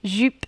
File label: "jupe", French